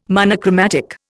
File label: "monochromatic", Indian